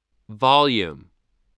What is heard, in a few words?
"volume", spelling